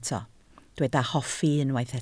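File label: "hoffi" Welsh, spoken word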